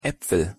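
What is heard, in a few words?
pronunciation "apfel", German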